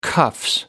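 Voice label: "cuffs", British English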